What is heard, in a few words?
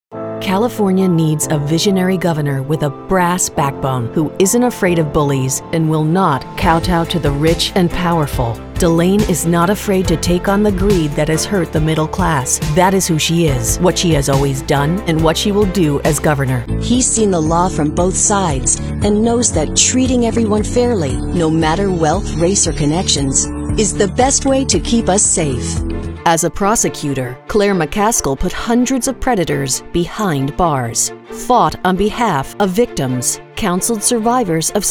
political female